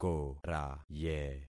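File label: "koraye", concatenated syllables; Affixing experiment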